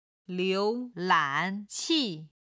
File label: "liu2lan3qi4", chinese